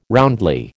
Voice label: "roundly", American